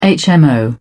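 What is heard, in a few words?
"hmo", British English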